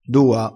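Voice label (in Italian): "dua", vocabolario dialettale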